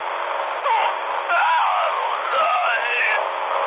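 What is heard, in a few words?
German damaged pilot, speech; War Thunder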